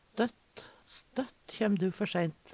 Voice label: støtt, Numedalsmål; alltid; Adverb

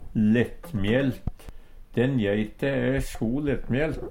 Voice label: lettmjelt, Numedalsmål; Adjektiv

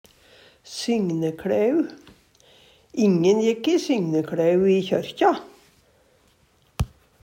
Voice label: sygnekLæu, Numedalsmål; kvardagsklær, arbeidsklær; Uttrykk

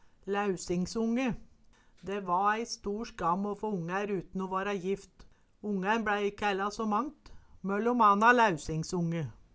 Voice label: laeusingsonge, Numedalsmål

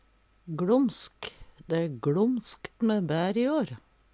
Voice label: glomsk, Numedalsmål

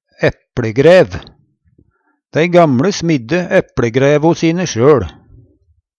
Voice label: "epLegræv", Numedalsmål; Handreiskap til å ta opp poteter med

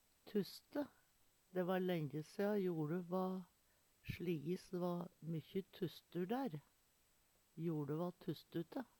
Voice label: "tusste", Numedalsmål; Dæ va lenje sea dæ va sLiji so jole va fullt tå tusstur. JoLe va tusstute.

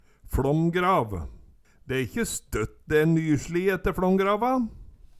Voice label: fLåmgrav, Numedalsmål

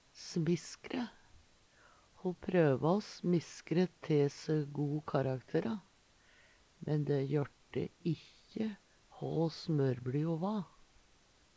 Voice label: smiskre, Numedalsmål; Eksempel på bruk Ho prøva o smiskre te se go karaktera, men dæ jørrte ikkje hå smørbli ho va.

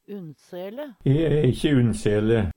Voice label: unnsele, Numedalsmål; Adjektiv

